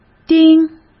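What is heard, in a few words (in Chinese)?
"盯", Mandarin Chinese; hsk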